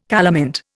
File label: "calamint", Indian